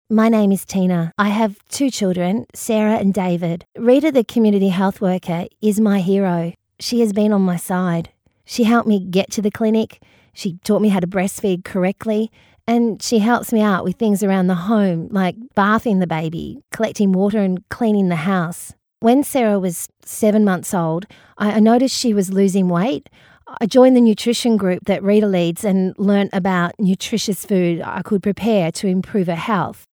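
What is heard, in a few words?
Australian English, female, voice over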